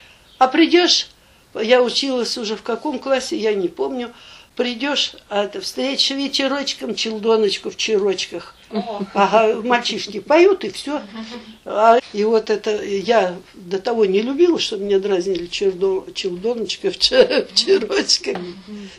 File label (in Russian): среднеобский говор; чалдоночка